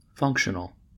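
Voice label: "functional", American English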